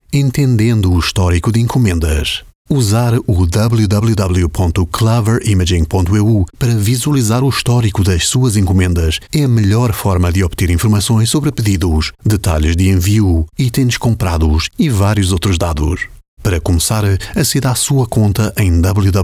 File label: portuguese corporate videos